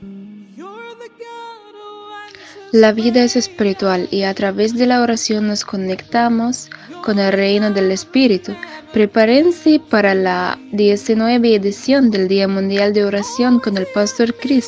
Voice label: SPANISH JINGLES